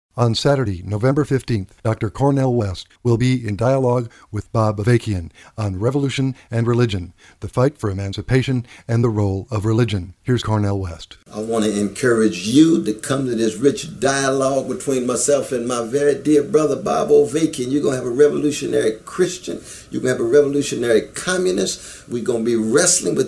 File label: PSA